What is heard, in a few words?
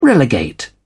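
"relegate", British English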